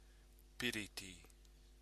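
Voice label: "piriti", Māori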